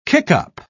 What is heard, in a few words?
"kickup", pronounce